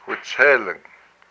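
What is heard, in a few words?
"my blood", Hupa, male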